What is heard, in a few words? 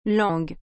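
"langue", French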